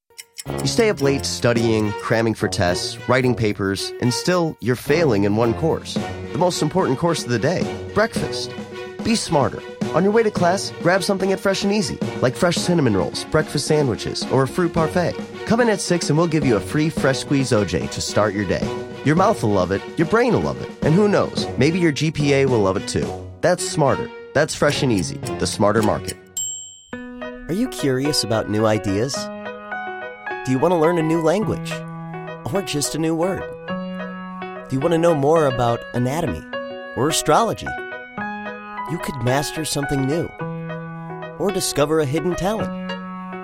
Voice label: commercial